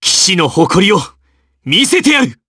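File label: vox Japanese; Clause; kingsraid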